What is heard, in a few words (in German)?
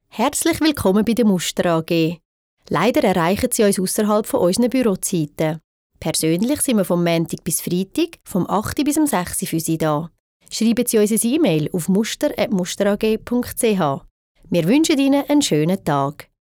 Telefonansage